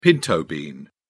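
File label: "pinto bean", British English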